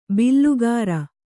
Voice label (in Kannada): "ಬಿಲ್ಲುಗಾರ", Kannada